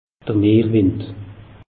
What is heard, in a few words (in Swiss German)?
"meerwind", alsace; meteo